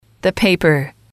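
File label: "paper", English, female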